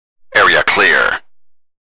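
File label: area clear, Video Game Announcer; RADIO SOLDIER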